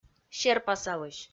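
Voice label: "серпасалысь", Komi, female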